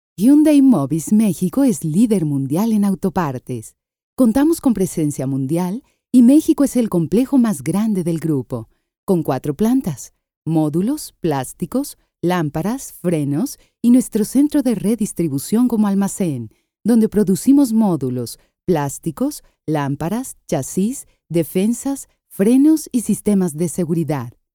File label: Spanish Narration